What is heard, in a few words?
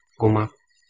"guma", Anglo Saxon